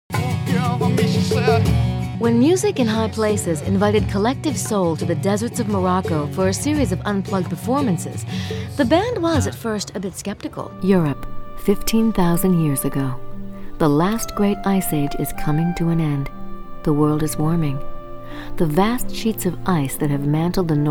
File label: narration